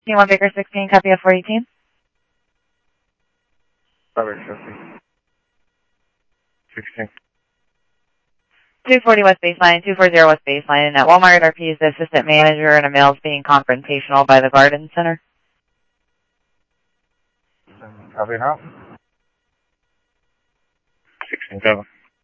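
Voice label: Simulcast police department, scanner audio trunk; Mesa Simulcast; MESA PD 1 Fiesta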